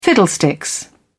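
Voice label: "fiddlesticks", English, British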